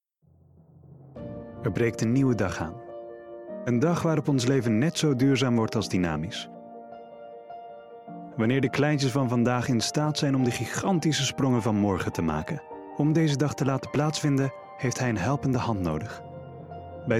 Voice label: Dutch M Commercial; voice over

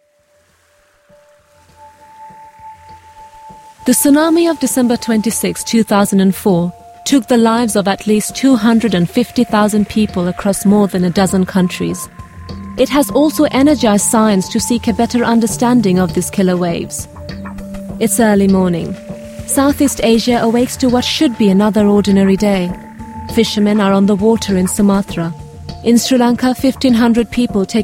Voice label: Indian English, F, Documentary

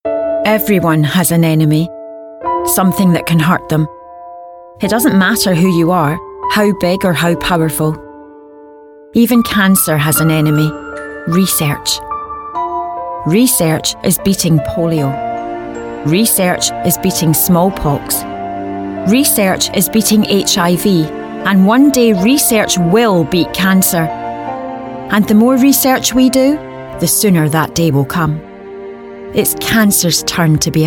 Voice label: Scottish F Charity Appeal; accent of scotland

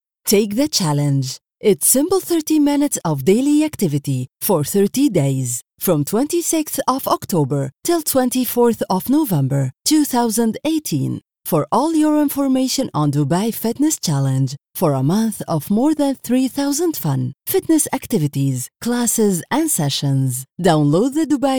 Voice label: Arabic English, narration; accent of the arab league

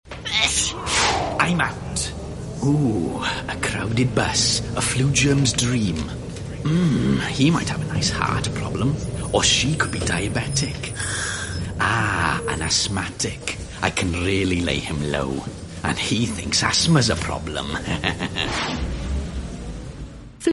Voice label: Welsh English M Commercial; accent of wales

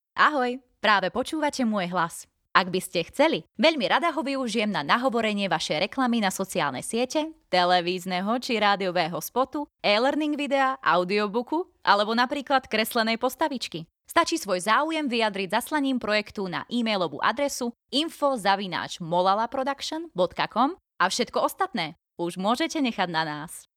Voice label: Slovak F

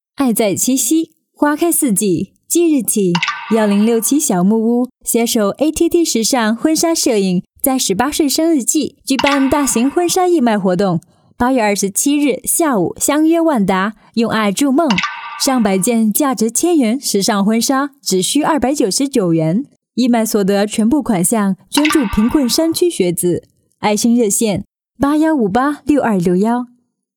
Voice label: Chinese Mandarin F Narration; voice over

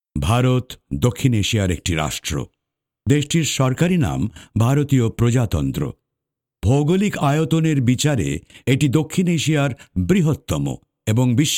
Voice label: Bengali M Voicereel; voice over

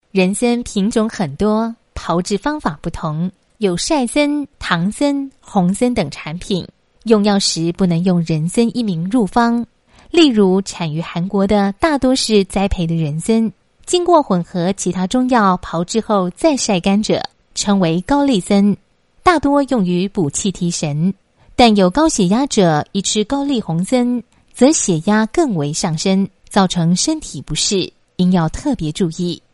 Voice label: Taiwanese Mandarin, F

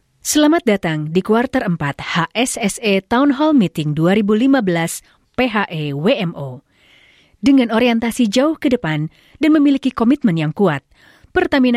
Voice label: Indonesian, F, Corporate

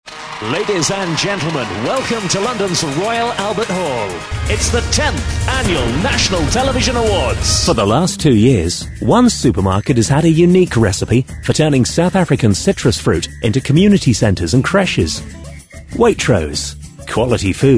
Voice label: English UK M Commercial

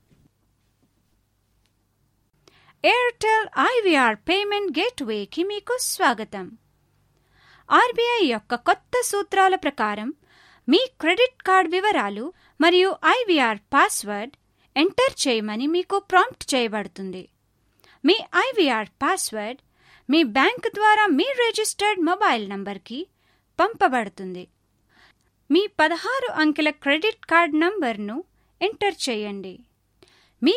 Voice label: Telugu, F, IVR

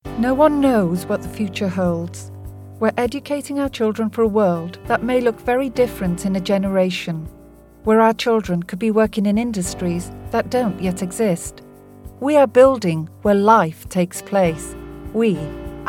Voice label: Welsh English F Corporate, accent of wales

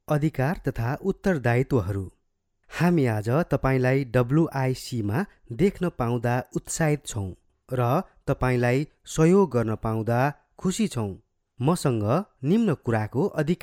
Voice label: Nepalese M

